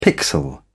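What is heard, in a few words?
"pixel", British English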